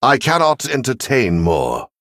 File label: Male Venthyr ERROR FULL MINIONS